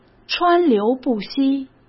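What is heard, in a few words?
"川流不息", Mandarin Chinese; chinese-flashcards; hsk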